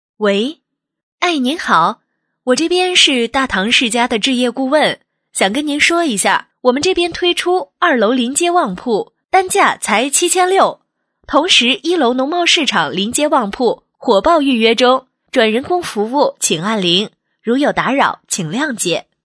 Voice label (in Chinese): 女声【真人电话】置业顾问女